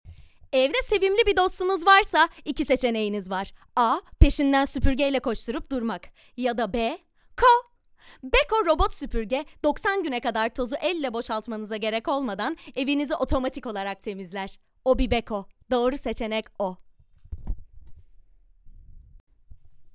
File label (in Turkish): turkce kadin